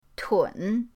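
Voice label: "tun", Mandarin pinyin, third tone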